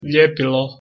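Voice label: "ljepilo" Bosnian Croatian Serbian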